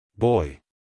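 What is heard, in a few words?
"boy", American English male; everyone-can-use-english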